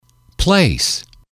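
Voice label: "place", English, male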